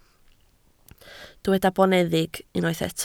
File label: "boneddig", Welsh